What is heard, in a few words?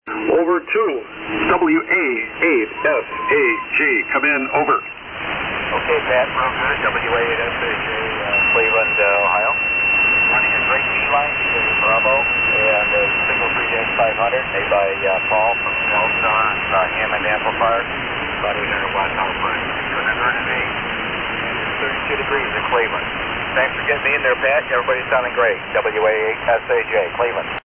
Drake B Line & 3-500Z Amp; Willoughby, OH.